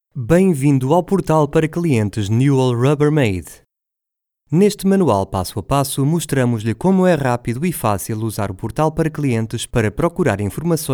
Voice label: Tutorial Pt